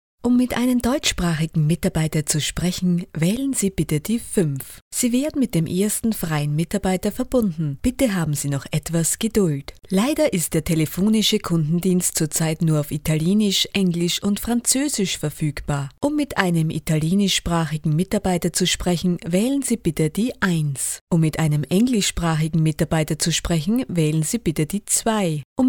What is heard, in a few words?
German female